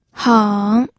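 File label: "heng", Mandarin Chinese, third tone; pinyin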